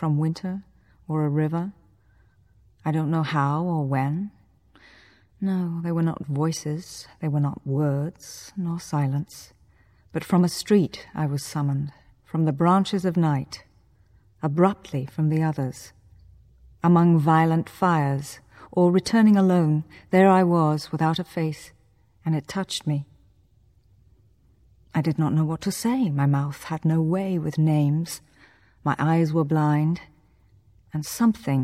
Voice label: poetry